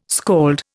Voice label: "skald", Indian

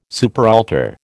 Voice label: "superaltar", American

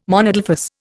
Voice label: "monadelphous", Indian

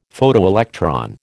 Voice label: "Photoelectron", American

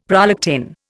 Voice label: "prolactin", Indian; Dictionary

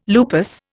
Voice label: "lupus", Indian

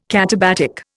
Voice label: "katabatic", Indian

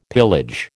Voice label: "pillage", American

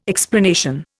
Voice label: "explanation", Indian

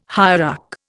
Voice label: "hierarch", Indian

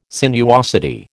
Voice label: "sinuosity", American

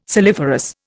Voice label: "saliferous", Indian

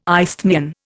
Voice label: "isthmian", Indian

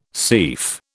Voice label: "seif", British

